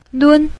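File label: "lun", Mandarin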